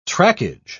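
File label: "trackage", British English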